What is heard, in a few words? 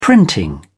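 "printing", British English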